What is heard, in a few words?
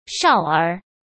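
"少儿", Mandarin Chinese; hsk; chinese-flashcards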